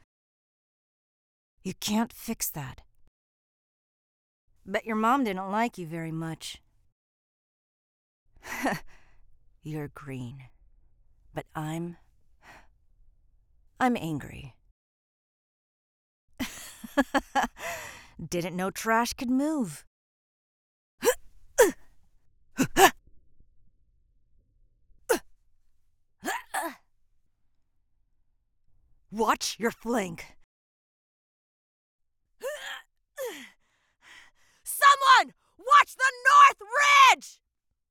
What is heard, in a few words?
Recruit Female